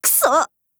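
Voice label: LOW MOOD, Japanese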